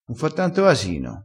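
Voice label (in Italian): "fa casino", dialettale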